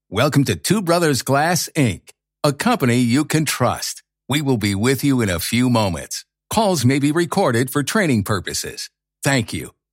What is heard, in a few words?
Phone Welcome Greeting; Two Brothers Glass